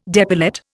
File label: "depilate", Indian